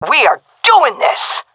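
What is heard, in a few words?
round winning, female; vo; Counter Strike Model Pack; CS:GO; seal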